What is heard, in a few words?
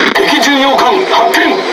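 Speech Detection Enemy Cruiser, Japanese; World Of Warships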